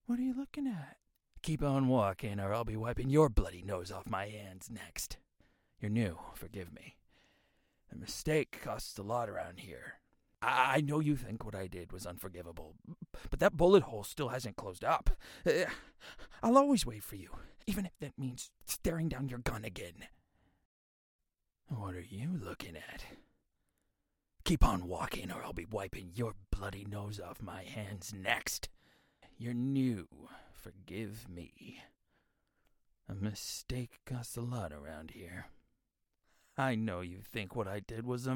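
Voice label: American English, Characters; GoLocalise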